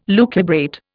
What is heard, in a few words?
"lucubrate", Indian